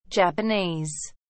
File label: japanese